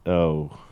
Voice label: deflated oh

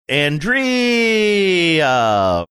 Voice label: saying and rea drawn out sing songy

